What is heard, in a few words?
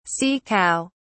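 "sea cow", English, British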